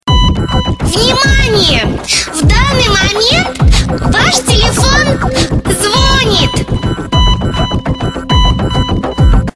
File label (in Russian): Телефон звОнит в данный момент! (безбашенная девочка); Реалтоны